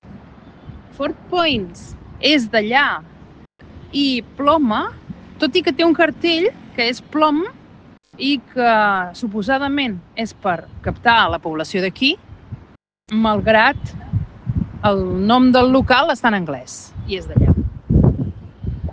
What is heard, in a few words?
Voice message